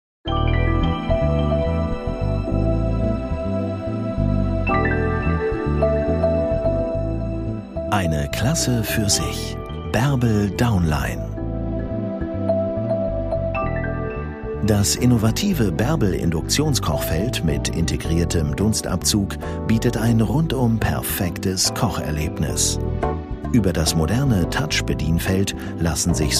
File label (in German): Kochfeld Produktfilm; Berbel; LOFT VOICES